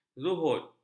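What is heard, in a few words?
"zuhud", Malay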